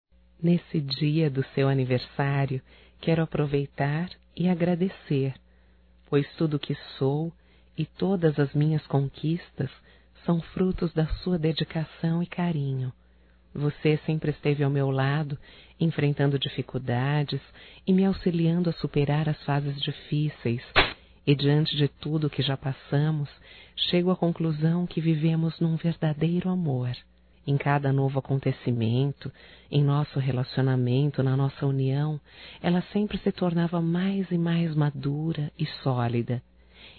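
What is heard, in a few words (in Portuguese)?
romântico female, Telemensagens Aniversarios; Telemensagens 2017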